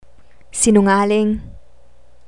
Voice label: "sinungaling", Tagalog; relationships

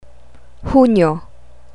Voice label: "hunyo", Tagalog; june

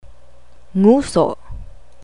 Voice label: "nguso", Tagalog

